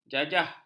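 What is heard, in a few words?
"jajah", Malay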